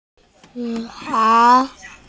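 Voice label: "police", Mandarin, hearing-impaired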